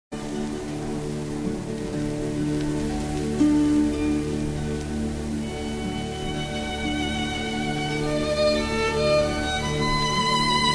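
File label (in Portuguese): NASCIMENTO GRAVIDEZ FEMININO, Telemensagens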